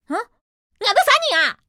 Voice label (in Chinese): 偷听对话; 李氏